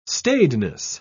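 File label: "staidness", British English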